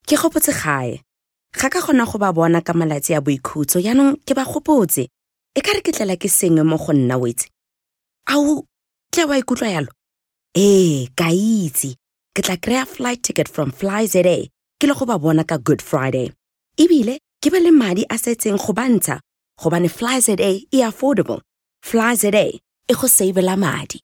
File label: Setswana